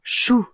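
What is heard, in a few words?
"chou", French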